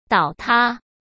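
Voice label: "倒塌", Mandarin Chinese; chinese flashcards; hsk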